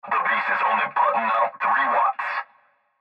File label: Cb Trucker Radio; Cb Radio Male The Beast Is Only Putting Out Three Watts; Radio Voice; Blastwave